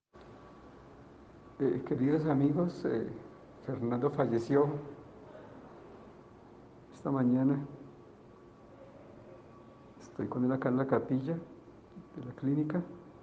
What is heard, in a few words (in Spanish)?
Anuncio fallecimiento